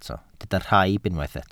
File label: "rhaib", welsh